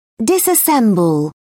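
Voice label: "disassemble", British English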